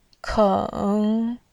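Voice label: "keng3", Mandarin Chinese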